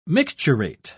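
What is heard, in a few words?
"micturate", British English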